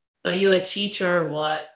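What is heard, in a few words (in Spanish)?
Voice Acting es F, Spanish, female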